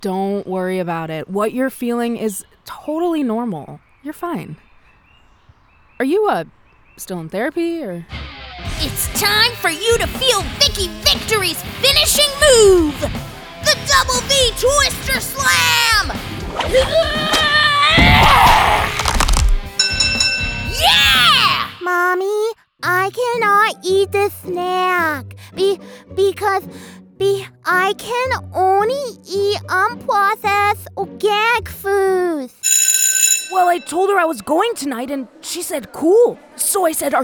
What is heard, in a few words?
ANIMATION